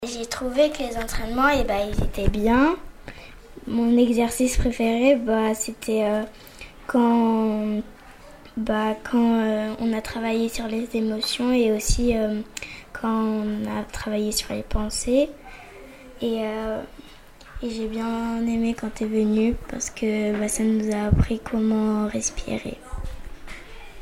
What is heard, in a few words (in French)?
témoignage enfant